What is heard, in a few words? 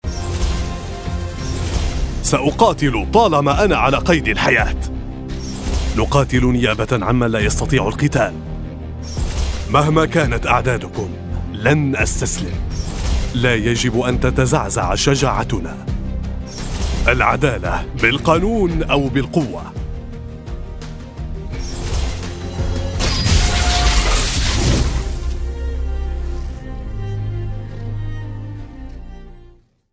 Arabic video games